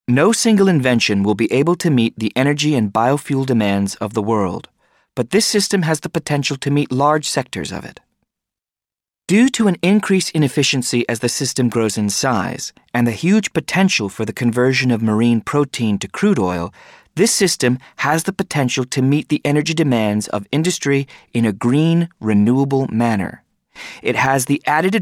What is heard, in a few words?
Narrative